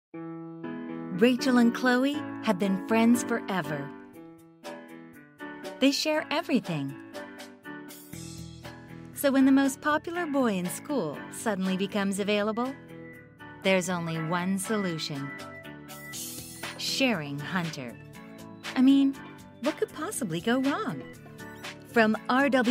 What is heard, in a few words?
Young Adult Fun Fresh